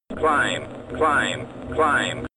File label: Male Climb Climb